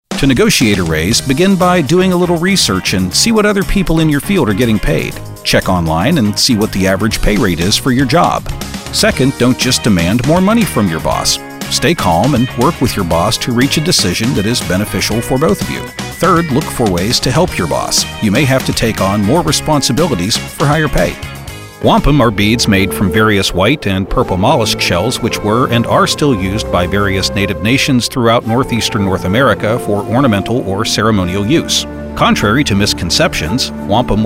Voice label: MARKET NARRATION

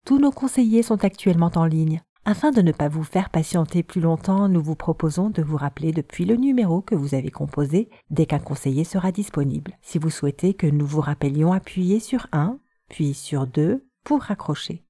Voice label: IVR; English (North American)

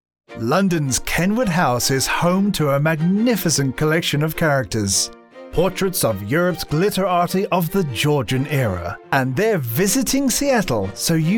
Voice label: Commercial Voiceover, british

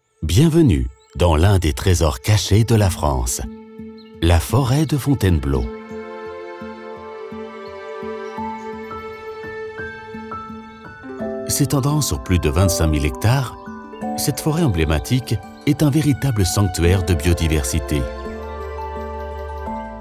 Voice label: Documentary

